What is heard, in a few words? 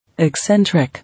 "Eccentric", English